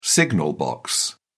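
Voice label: "signal box", English, British English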